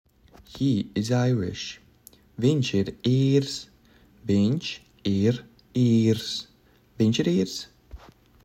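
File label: "He is Irish", Latvian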